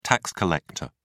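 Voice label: "tax collector", British English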